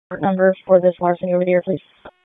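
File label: Police Northeast District Dispatch; Raleigh; wake p25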